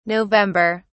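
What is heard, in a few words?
"november", British English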